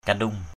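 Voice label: "kandung", Cham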